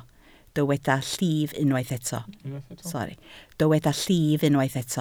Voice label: "llif", Welsh